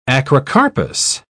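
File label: "acrocarpous", British English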